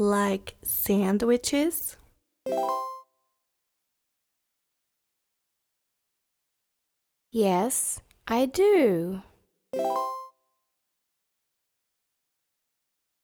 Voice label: conversation slow; ABC Adventure Stories